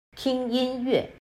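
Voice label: "听音乐", Chinese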